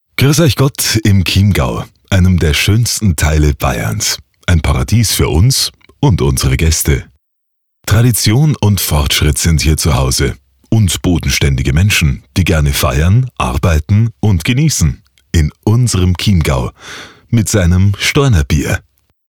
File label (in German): bayerisch